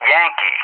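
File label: "Yankee", English; JTAC; Alphabet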